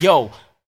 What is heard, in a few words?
"yo", voice